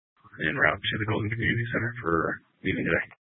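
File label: Dispatch, Scanner audio; Troop 1A East; Jefferson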